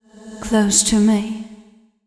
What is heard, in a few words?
fem spo, Vocals; Mutekki Media; Diamond Vocals 2